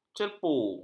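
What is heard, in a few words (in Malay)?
"cerpu", Malay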